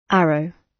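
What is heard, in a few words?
"arrow", British; The arts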